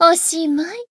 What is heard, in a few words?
finish, jp; toova